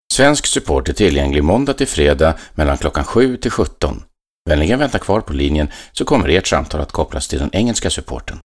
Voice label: male swedish